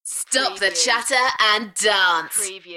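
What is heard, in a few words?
UK female, DJ vocals; beanstalk-audio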